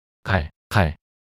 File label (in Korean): 갈칼 낱소리 남자